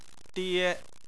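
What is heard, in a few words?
"die" first tone, Chinese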